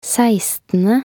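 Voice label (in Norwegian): "sekstende", Norwegian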